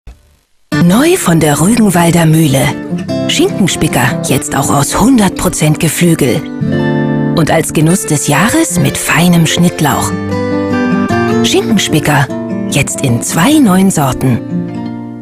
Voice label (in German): Werbung; Rügenwalder; Schinkenspicker